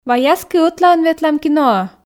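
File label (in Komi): вай аски ӧтлаын ветлам киноӧ, Komi, female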